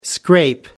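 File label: "scrape by", British English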